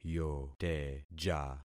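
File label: "yoteja", concatenated syllables; Affixing experiment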